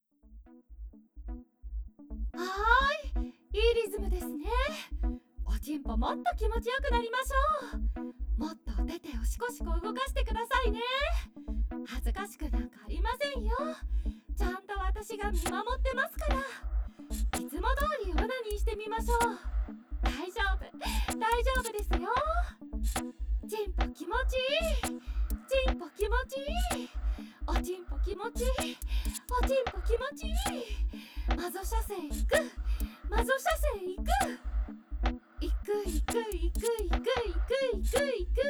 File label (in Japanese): リズミックドミナンス, ASMR; まぞトレ